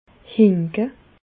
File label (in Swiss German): "hinke", Bas Rhin; medecine; boiter